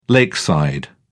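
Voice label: "lakeside", British English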